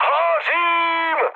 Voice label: on fire, speech cze, mood high; War Thunder